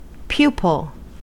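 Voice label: "pupil", US English; pronunciations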